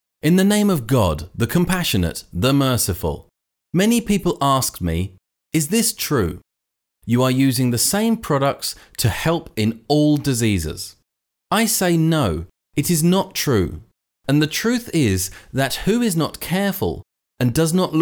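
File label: English, male; lektoring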